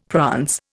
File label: "prance", Indian; Dictionary